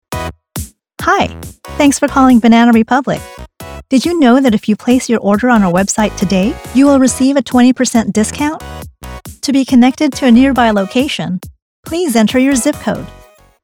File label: Telephone On Hold IVR